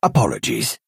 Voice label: Argonian male sorry